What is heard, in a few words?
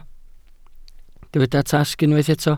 "tasg", Welsh